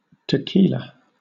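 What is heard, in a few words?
"tequila", British; pronunciations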